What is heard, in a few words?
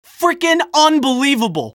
jeers